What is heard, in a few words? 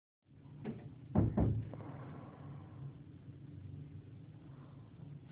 Voice message